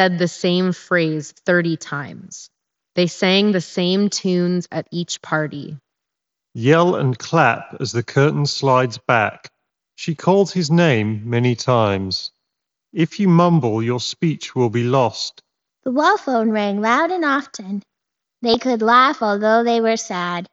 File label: Reverb microphone sample; Alienware Pro; wireless headset